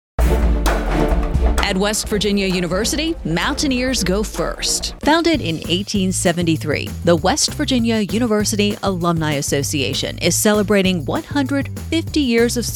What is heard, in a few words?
radio spot; alumni 150